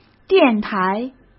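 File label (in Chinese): "电台", Mandarin Chinese; chinese-flashcards; hsk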